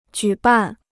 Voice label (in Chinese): "举办", Chinese